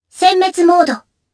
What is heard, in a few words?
Vox Skill jp; Kara; kings raid